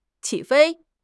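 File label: "qi3 fei1", Chinese; HSK; HSK3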